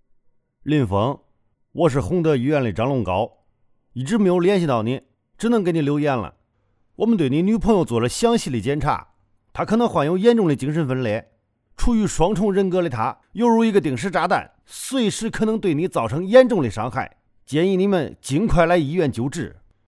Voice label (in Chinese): 河南话角色